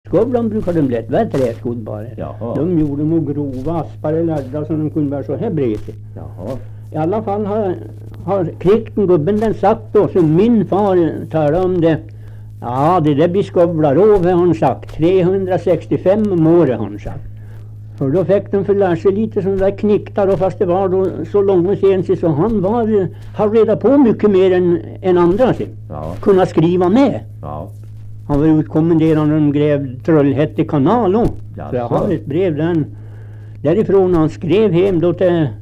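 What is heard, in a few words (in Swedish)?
Österfärnebo Gästrikland, dialekter; Folkminnen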